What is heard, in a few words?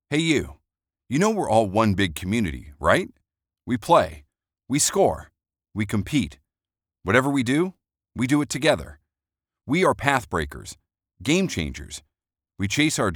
Conversational